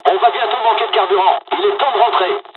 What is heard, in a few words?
dialog, dialogs french; War Thunder